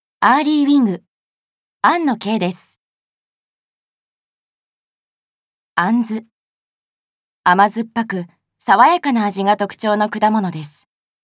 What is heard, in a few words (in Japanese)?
ナレーションALL